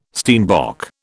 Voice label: "steinbock", British

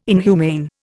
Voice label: "inhumane", Indian

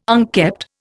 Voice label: "unkept", Indian

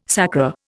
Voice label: "sacra", Indian

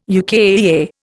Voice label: "UKAEA", Indian

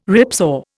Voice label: "ripsaw", Indian

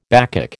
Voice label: "Bacchic", American

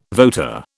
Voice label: "voter", British

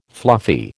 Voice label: "fluffy", American

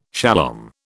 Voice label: "shalom", British

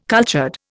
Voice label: "cultured", Indian

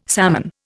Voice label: "salmon", Indian

